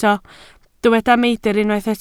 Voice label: "meidr", Welsh

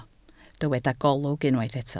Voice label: "golwg", Welsh

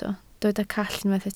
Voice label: "call", Welsh

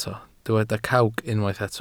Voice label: "cawg", Welsh

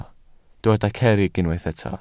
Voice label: "cerrig", Welsh

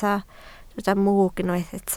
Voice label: "mwg", Welsh

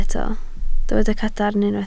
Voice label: "cadarn", Welsh

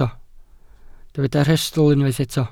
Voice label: "rhestl", Welsh

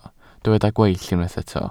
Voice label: "gweill", Welsh

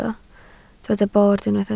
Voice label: "bord", Welsh

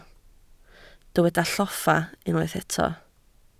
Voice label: "lloffa", Welsh

